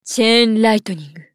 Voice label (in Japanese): ウォーロック女 チェインライトニング